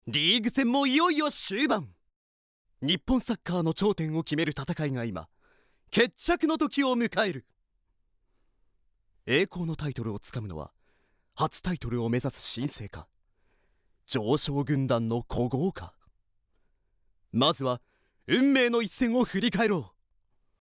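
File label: voice sample, narration; ナレーション３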